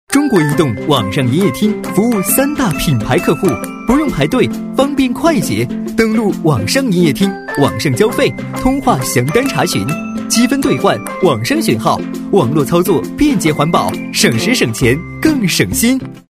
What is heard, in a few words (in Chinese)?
男 广告配音 营业厅 年轻动感; 年轻多变 年轻时尚; 中国移动营业厅